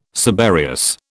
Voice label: "subereous", British